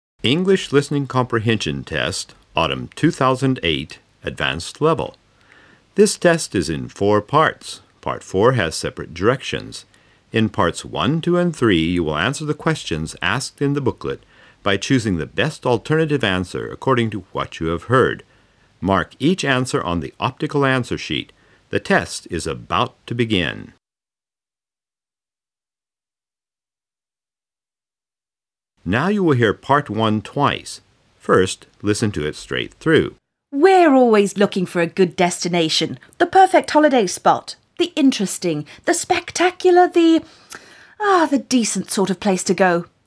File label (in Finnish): Englanti pitkä kuuntelukoe äänite syksy; Engelska lång hörförståelseprov inspelning hösten